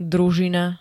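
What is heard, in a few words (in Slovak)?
"družina", Slovak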